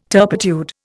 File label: "turpitude", Indian